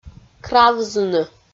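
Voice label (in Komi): "кравзыны", Komi-Zyrian, female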